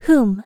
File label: "whom", female human voice girl; princess hero vocal